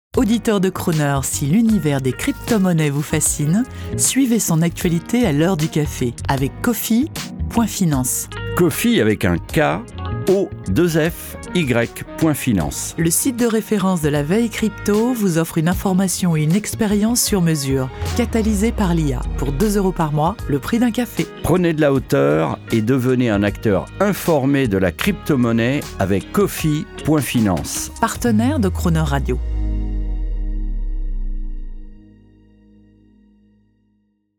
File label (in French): PUBLICITE; KOFFY CRYPTO; crooner factory